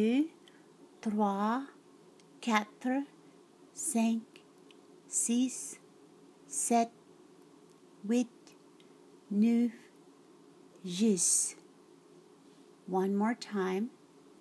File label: Michif Counting To Ten; Nitohta